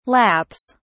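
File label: "laps", British English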